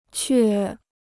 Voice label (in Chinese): "却", Chinese